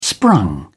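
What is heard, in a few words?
"sprung", English, British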